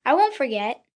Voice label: I Wont Forget, 1 boy, 10 yrs; Talking Sound Effects